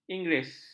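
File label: "inggeris", Malay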